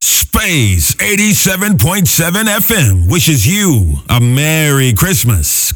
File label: CHRISTMAS LINER